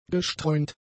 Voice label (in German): "gestreunt", german; adjectives